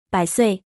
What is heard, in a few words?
bless you, mandarin